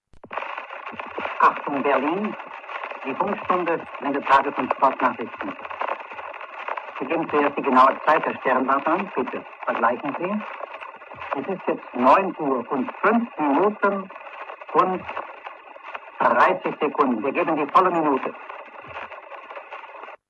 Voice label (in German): zeitansage; funkstunde berlin; 1932